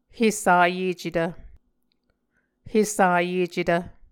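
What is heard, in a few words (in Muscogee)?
"hesayecetv", Muscogee word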